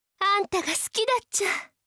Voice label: 好きです 富山; 貴音

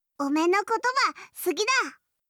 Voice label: 好きです 秋田; 伊織

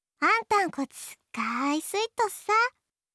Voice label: 好きです, 佐賀; 春香

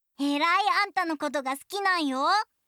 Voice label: 好きです 徳島; 響

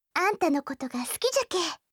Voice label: 好きです 岡山; あずさ

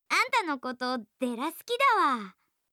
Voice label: 好きです 愛知; 律子

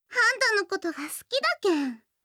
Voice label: 好きです 島根; やよい

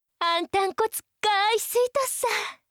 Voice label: 好きです, 佐賀; 貴音

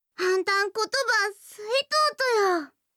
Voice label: 好きです 熊本; やよい

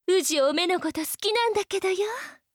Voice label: 好きです 山形; 貴音

